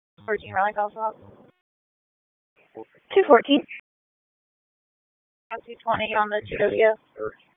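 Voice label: Raleigh Police Northeast District Dispatch, p25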